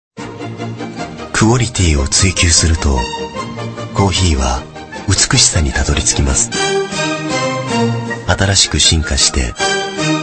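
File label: japanese male voice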